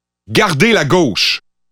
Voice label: Pathfinder French Canadian